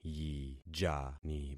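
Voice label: "yijani", concatenated syllables; Affixing experiment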